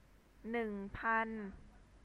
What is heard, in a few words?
"neung pan", Thai